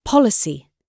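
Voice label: "policy", British English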